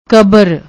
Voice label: "kbr", Punjabi; ਕਬਰ